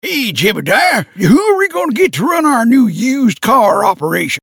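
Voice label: dub oldman, American English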